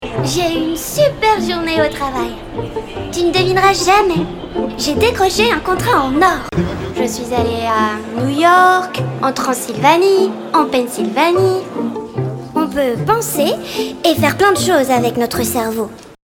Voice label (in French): fillette voix, fillette en femme d'affaire; BARBIE; MATTEL; voix biz